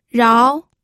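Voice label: "rao", Mandarin Chinese, second tone; pinyin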